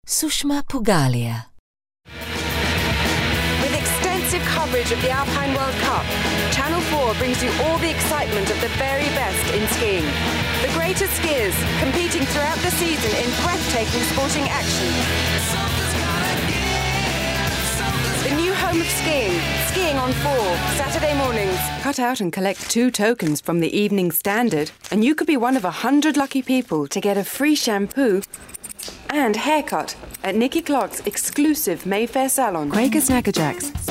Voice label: eng coms promo

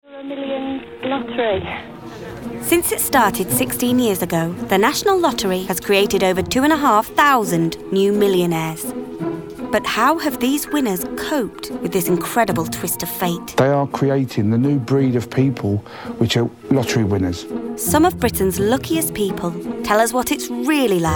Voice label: narrative

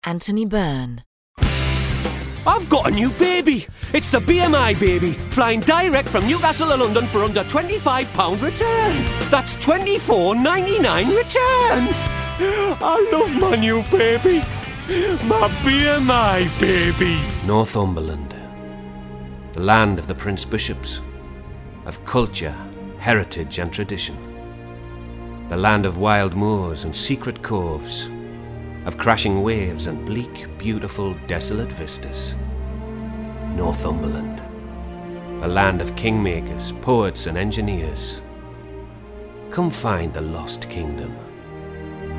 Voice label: northern

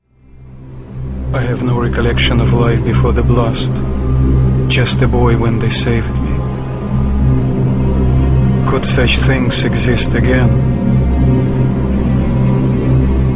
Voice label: vo english montage

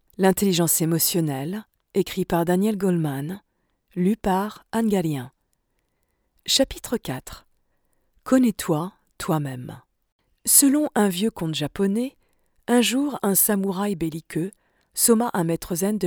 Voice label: Audio Book French

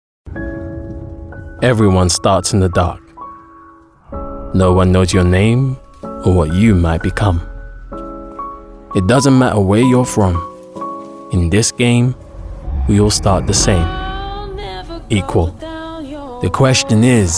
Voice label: commercial

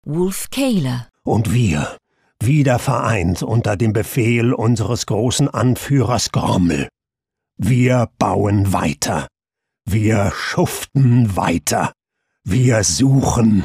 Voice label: german character

